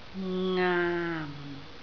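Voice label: "ngaam4", Cantonese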